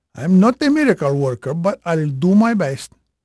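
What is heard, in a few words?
Portuguese male, voice; Pirates Of The Caribbean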